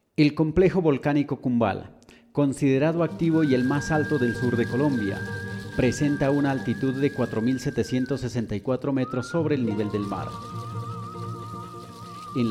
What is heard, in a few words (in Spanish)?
Cuña; Cumbal